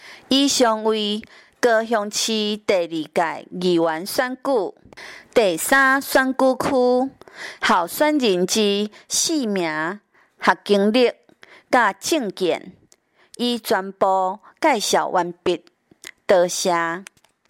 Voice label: 台語; 第三選區

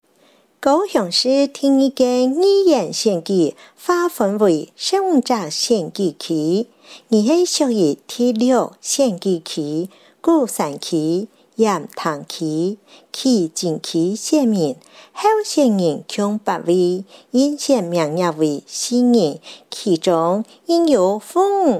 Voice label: 客語; 第六選區

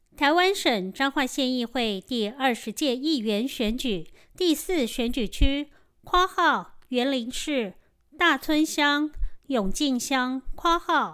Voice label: 前言 國語; 彰化縣; 有聲公報; 縣議員; 第四選舉區

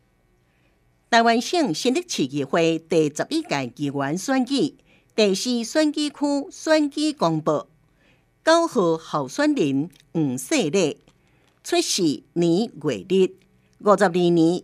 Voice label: 台語; 新竹市; 有聲公報; 市議員; 第四選舉區

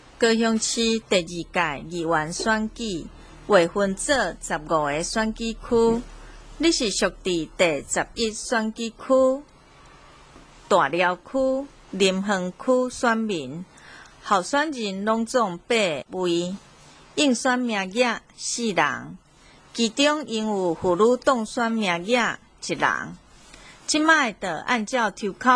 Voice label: 台語, 前言, 有聲選舉公報; 高雄市; 高雄市市長議員有聲選舉公報; 第十一選區

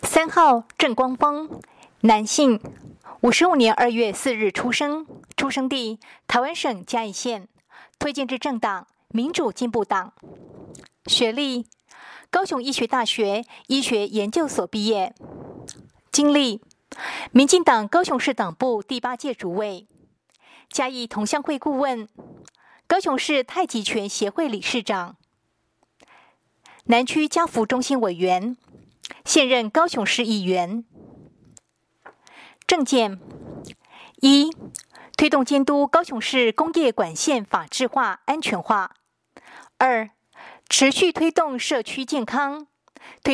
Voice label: 國語, 有聲選舉公報; 高雄市; 高雄市市長議員有聲選舉公報; 第十選區

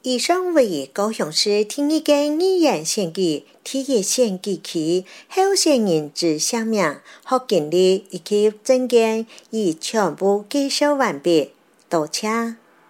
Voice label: 客語; 第一選區

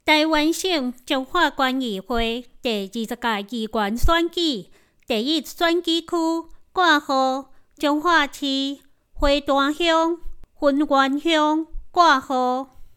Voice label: 臺語; 彰化縣; 有聲公報; 縣議員; 第一選區

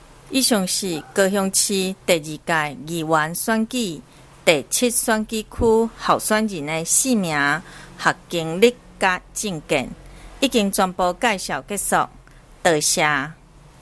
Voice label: 台語; 第七選區

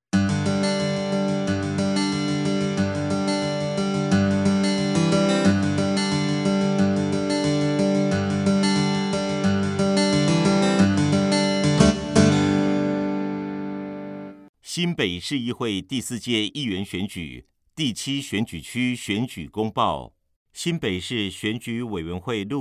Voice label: 國語; 新北市; 有聲公報; 市議員

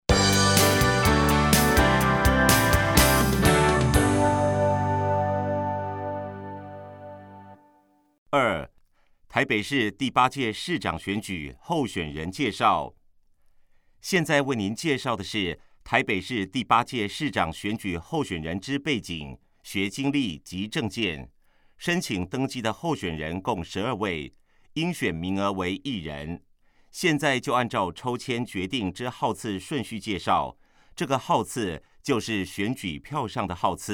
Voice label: 市長候選人介紹, 國語; 臺北市第8屆市長選舉候選人介紹; 有聲公報

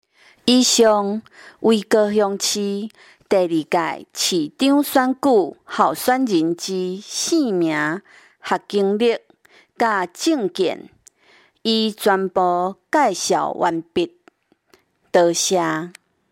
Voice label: 台語, 有聲選舉公報; 高雄市; 高雄市市長議員有聲選舉公報

